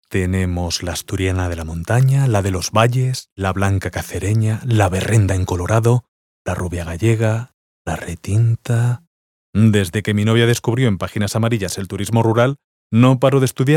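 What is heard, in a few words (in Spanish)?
interpretado, Locución publicitaria; locutor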